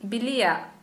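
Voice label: Santali female ripe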